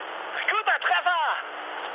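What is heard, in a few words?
German bomb success mood high, speech; War Thunder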